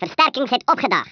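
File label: "drop", Afrikaans accent; Speech; International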